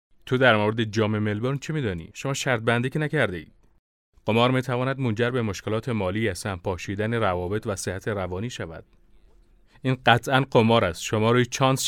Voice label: Dari Dubbing, Male, Adult, Dari

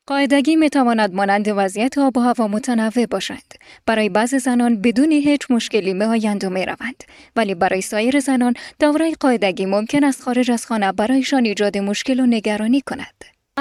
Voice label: Dari Womens health

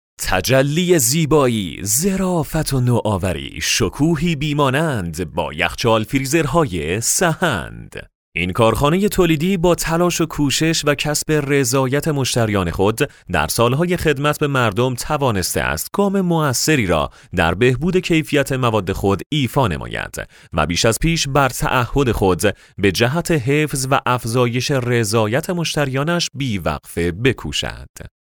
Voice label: Farsi Commercial

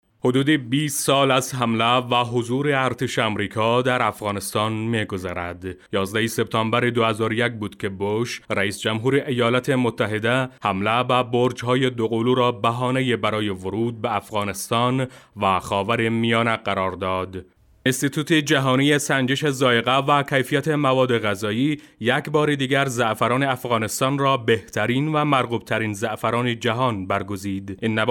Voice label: Dari Podcast

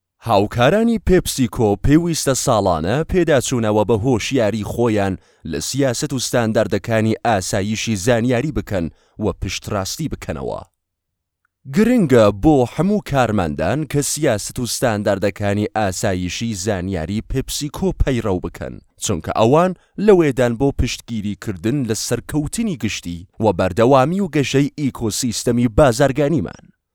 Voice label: Kurdish Sorani Commercial

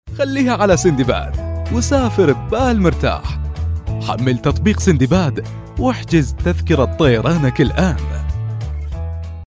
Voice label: Arabic Iraqi Commercial, Male, Adult

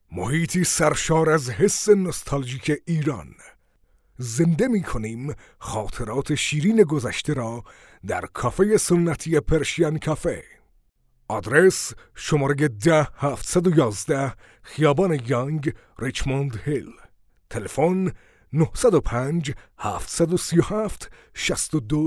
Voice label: Farsi Commercial, Male, Adult, Farsi (Persian)

